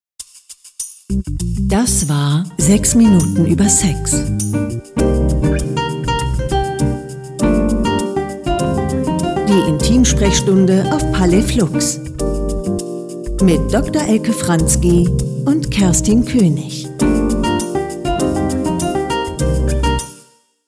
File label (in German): Jingle Outro